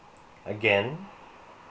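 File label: "again", Filipino, male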